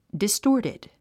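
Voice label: "distorted", 英単語 発音; distɔ́ːrtid ディストーティド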